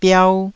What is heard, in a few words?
"biau1", taiwanese Syllables